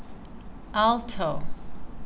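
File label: "alto", CAN, female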